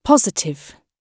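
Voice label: "positive", British English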